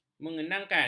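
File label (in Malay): "mengenangkan", Malay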